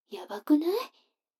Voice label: 女の子 台詞, ASMR

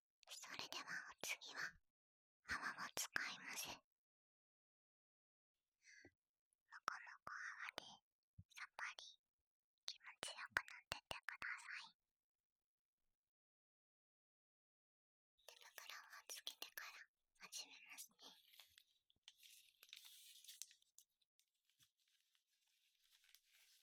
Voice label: お次は泡マッサージです, ASMR

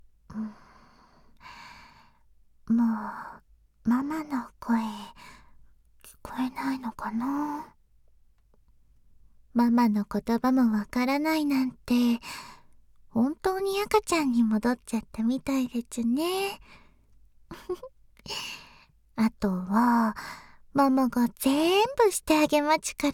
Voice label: サウンド, 優しいママ

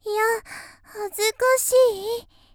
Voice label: 嫌、恥ずかしい（嬉しそう）; 綾波系少女

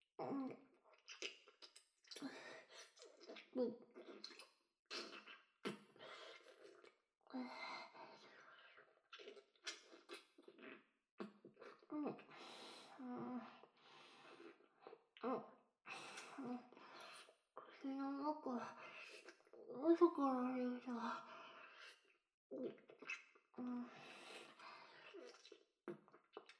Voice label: 環境音あり, ASMR

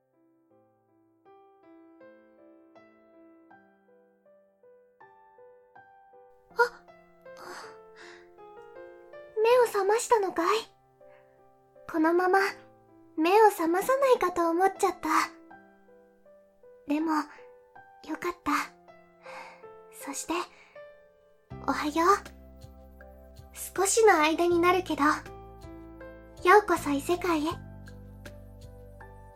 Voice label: 異世界ひざまくら; 本編音源

